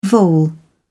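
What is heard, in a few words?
"vole", British English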